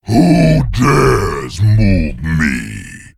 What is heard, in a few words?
Demon Emote Play; Doomguard